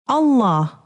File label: "allah", male